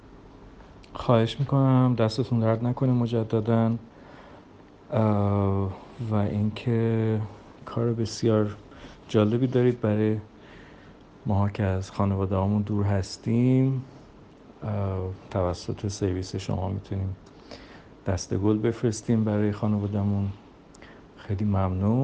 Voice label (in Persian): صدای مشتری رضایت از خرید دسته گل آلستر